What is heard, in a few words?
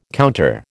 "counter", American